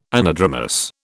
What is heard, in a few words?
"anadromous", British